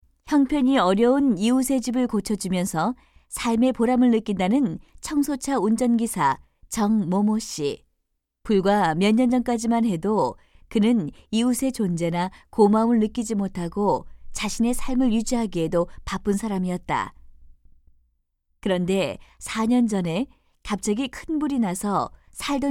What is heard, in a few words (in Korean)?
내레이션; 도덕; 이웃에 대한 배려와 상호 협동